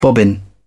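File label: "bobbin", British English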